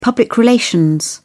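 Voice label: "public relations", British English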